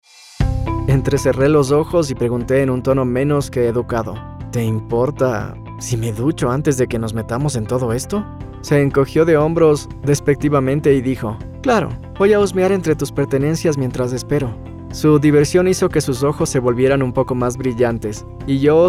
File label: Male Narration Spanish